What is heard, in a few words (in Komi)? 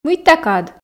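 мыйта кад, Komi, female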